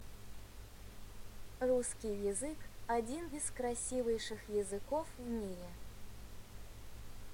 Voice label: russian